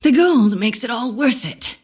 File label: last hit pain; antimage